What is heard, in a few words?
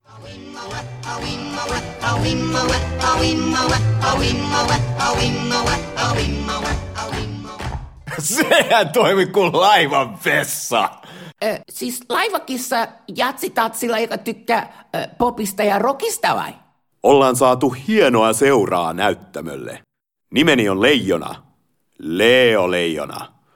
finnish animation